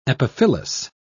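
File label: "epiphyllous", British English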